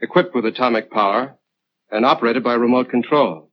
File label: Vocal equipped with atomic power and operated by remote, pitch glitch